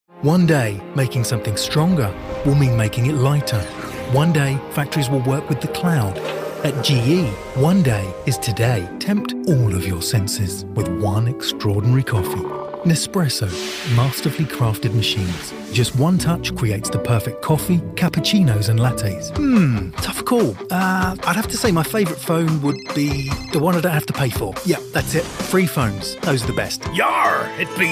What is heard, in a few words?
commercial